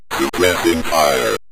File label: supressing fire, synth voice; Kenney's Sound Pack